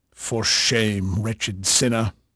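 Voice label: English male, voice; Pirates Of The Caribbean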